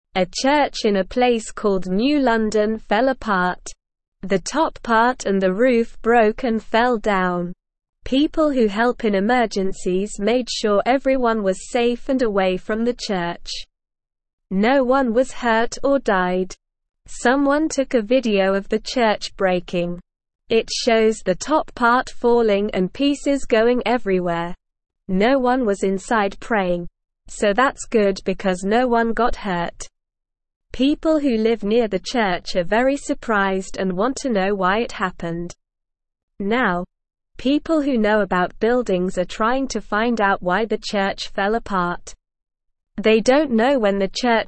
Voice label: English Lower Intermediate SLOW Reading; Big Church in New London Falls Down No One Hurt; English Newsroom